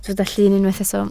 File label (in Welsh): "llun" Welsh